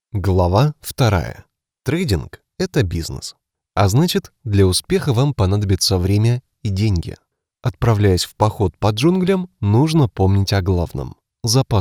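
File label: story, Russian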